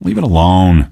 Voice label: answer, male; Garry's Mod; hl2

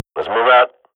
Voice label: radio bot start, Counter-Strike swat; Global Offensive; player vo

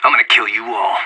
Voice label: in combat, player vo anarchist; Counter-Strike Global Offensive

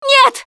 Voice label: grabbed by smoker, russian; Producer

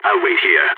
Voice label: waiting here, balkan; Counter-Strike Global Offensive

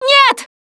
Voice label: grabbed by smoker, russian; Producer

